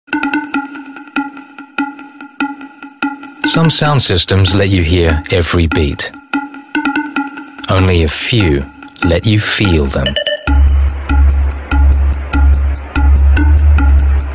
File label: AU English; MercedesBenz Sand